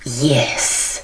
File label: assassin voice